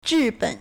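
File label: "zhi ben", Chinese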